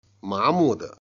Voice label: "jian", Chinese

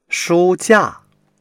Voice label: "shu1 jia4", Chinese

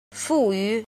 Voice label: "jin", Chinese

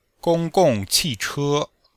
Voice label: "gong1 gong4 qi4 che1", chinois; Cours-de-Chinois

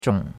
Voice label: "zhong3", chinois; Cours de Chinois

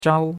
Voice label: "zhao1", chinois; Cours de Chinois

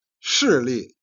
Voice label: "jian", Chinese

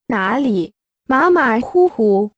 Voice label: Mandarin Conversation

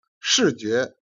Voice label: "jian", Chinese

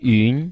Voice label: "yun2", Chinese

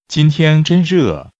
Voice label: Mandarin Conversation

